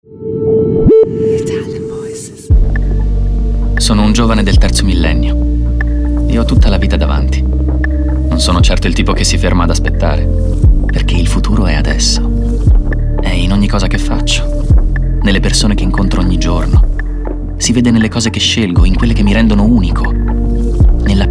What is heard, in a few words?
ITALIAN VOICES, male voices; Naked Reel